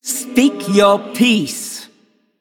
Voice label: Female Night Elf Play

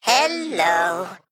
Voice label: Male Mech Sorry

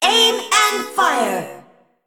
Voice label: Female Mech Attack

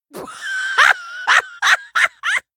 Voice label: Troll Emote Attack

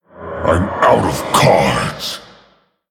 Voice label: Male Orc No Cards

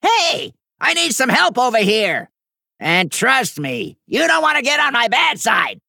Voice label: Male Gnome Bark

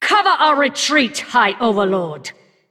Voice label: Female Undead; Anduin

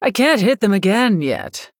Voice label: Female Human ERROR I ATTACKED

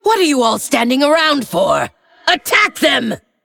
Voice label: Female Undead Intro

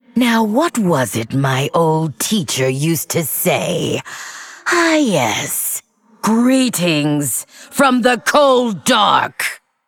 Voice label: Female Undead Boss Reveal

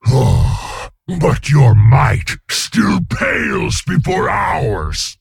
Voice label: Male Ogre

